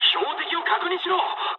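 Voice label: player friendly fire mood high, speech japanese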